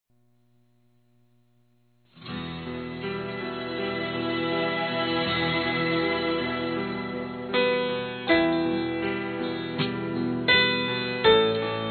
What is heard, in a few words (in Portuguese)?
Aniversário Avô Masculino, Telemensagens; Aniversários; Aniv Familiar